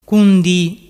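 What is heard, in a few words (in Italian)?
"cundi", Vernazzese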